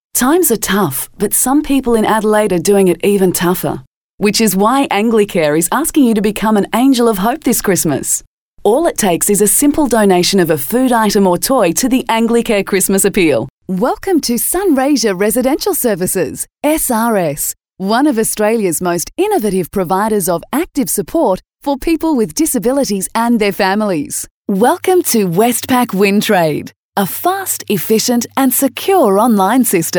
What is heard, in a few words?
Austrailian; foreign languages